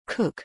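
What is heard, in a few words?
"cook", English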